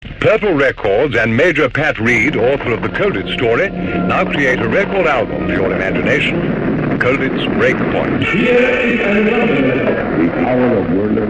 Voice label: Radio commercial; Radio Seagull; Purple Records

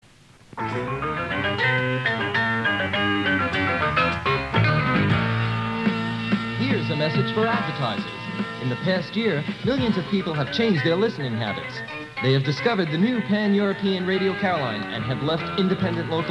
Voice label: advertising promo; Caroline

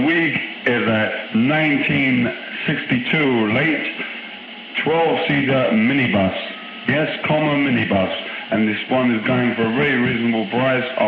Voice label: cars ad

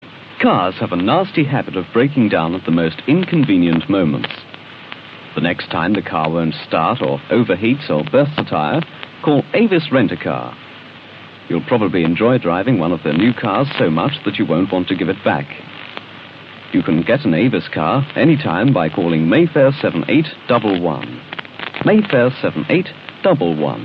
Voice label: commercial; Avis

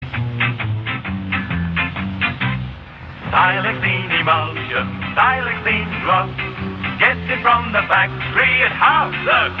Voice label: Radio commercial paint; Radio 390; Silexine paint

